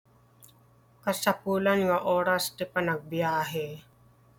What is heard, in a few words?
Ilocano